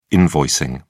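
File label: "invoicing", British English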